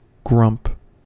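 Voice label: "grump", US English; pronunciations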